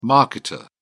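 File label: "marketer", English, British English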